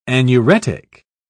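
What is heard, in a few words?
"anuretic", British English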